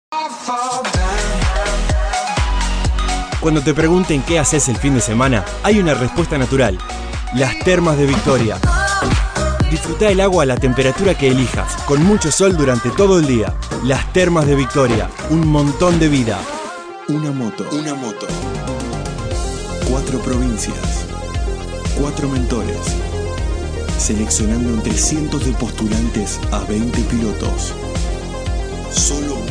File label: Spanish (LA), Male